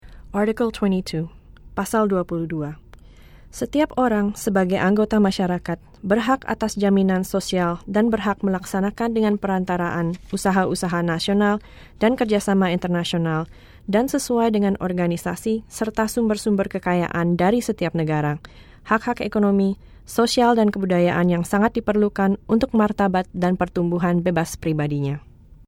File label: Indonesian